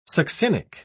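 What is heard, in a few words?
"succinic", British English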